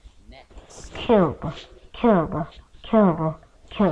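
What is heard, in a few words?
"cube", spoken word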